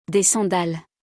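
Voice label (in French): "Des sandales", French; vocabulaire; le lexique de l'habillement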